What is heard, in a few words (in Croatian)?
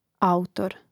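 "autor", Hrvatski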